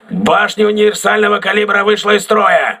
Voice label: auxiliary caliber turret damaged, crew ships russian